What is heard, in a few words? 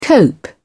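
"cope", British English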